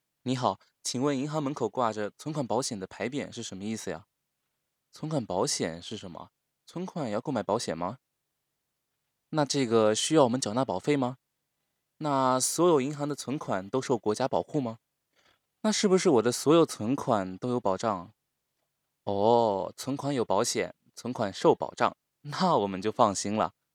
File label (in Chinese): 群众口语